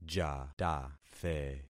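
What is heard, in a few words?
"jatathe", concatenated syllables; Affixing experiment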